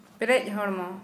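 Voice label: Santali female pregnant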